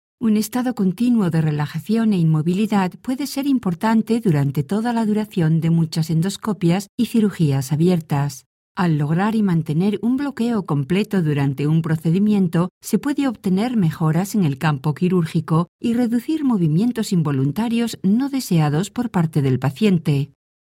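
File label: spanish voice health, Female Castillian Spanish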